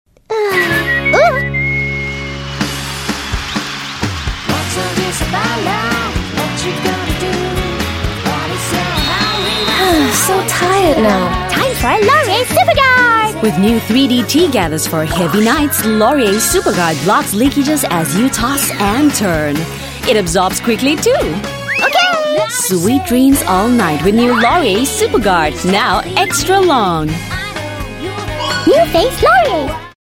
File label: English Singaporean Female